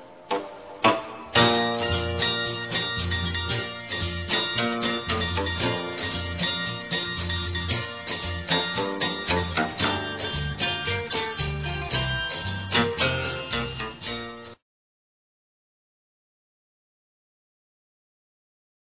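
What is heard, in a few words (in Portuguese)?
Natal neutro feminino, Telemensagens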